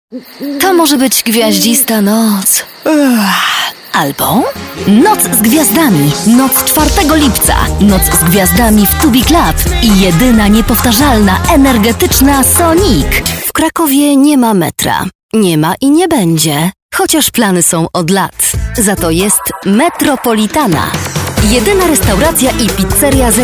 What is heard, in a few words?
COMMERCIALS